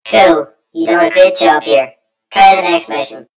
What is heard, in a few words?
story voiceover; yumpod